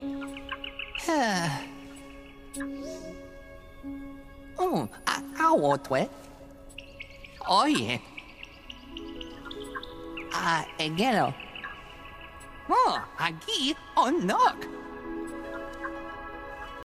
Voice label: voiceover; Ori and the Blind Forest; gamesoundopedia

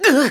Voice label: hurt; Super Smash Bros. Melee; mars

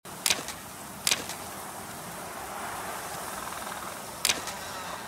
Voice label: dialog; The Flame in the Flood

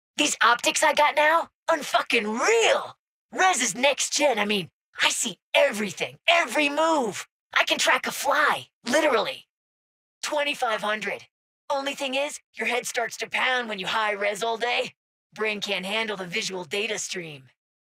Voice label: gang voice sounds; Cyberpunk 2077; maelstrom

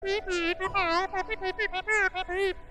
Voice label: celeste sound dialogue old woman

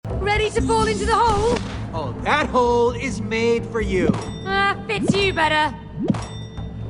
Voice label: voice dialog in game; it takes two

